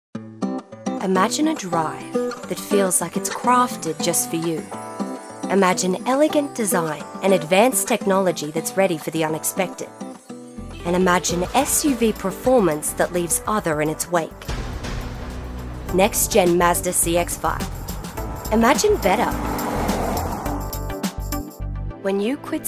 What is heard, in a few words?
Voice Over